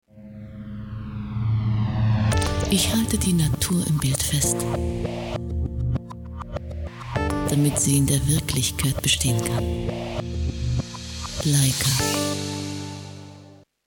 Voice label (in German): deutsch, Telefonansagen, weiblich